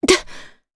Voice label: Vox Jump jp; voices; heroes; Maria; kings raid

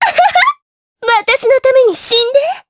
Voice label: Vox Skill jp; Erze; kingsraid

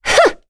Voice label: Lewsia Vox Attack, voices heroes en; kingsraid-audio

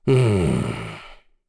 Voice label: Vox Think, kr; Dakaris; kingsraid

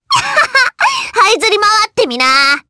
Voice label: Vox Skill, jp; Viska; kingsraid

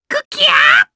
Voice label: Casting, jp; Lakrak; kings raid

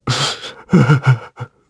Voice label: Sad; Siegfried; kings raid; en

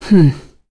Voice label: Tanya Vox Deny, voices heroes en; kingsraid-audio

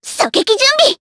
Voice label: Vox Skill, jp; Rodina; kings raid

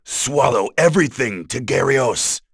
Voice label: Vox Skill, en; kingsraid; voices heroes

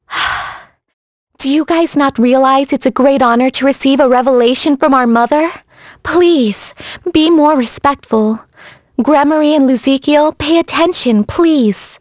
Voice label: vox, en; kingsraid; voices heroes en

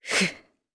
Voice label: Vox Casting jp; Hilda; kingsraid

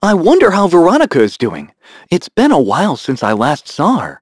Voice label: vox, en; kingsraid

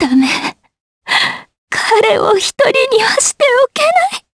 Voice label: Vox Dead, jp; DarkFrey; kingsraid

